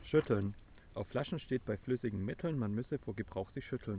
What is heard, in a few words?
room speech 8kHz